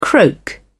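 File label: "croak", British English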